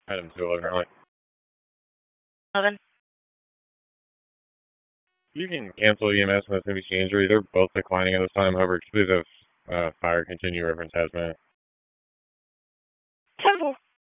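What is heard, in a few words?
Raleigh Police Northeast District Dispatch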